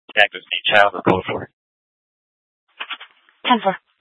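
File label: police dispatch, Scottsdale PD; Simulcast H- Scottsdale; Nth Dispatch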